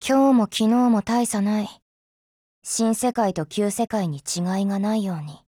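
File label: HELLO Japanese; SCARH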